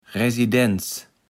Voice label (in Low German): "Residenz", Platt